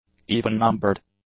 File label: "even-numbered", English; adjectives